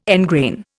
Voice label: "engrain", Indian